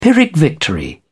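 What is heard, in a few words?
"pyrrhic victory", British English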